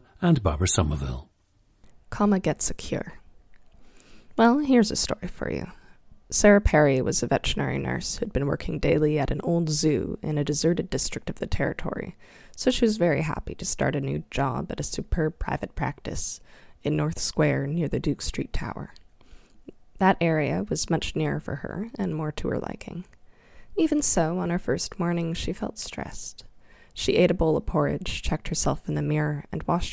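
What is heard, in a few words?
ontario, International Dialects of English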